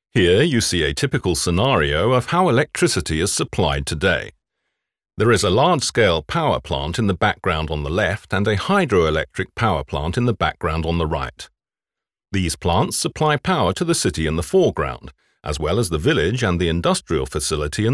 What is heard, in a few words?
E learning; E-energy